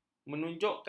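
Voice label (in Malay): "menunjukkan", Malay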